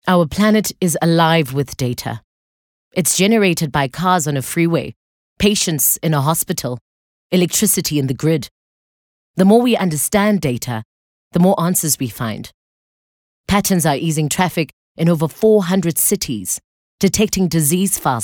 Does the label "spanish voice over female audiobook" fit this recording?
No